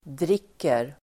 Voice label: "dricker", Swedish